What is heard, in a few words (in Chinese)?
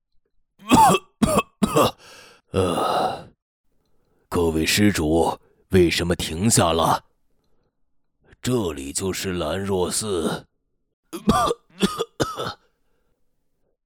老年样音